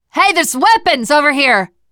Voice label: spot weapons, teengirl, voice; player; survivor